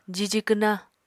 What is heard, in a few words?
"jiji kwna", Apatani